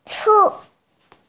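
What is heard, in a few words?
"shu4", Mandarin Chinese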